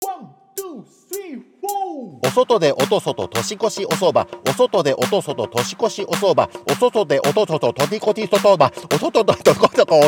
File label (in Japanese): 早口言葉リズム（中級編）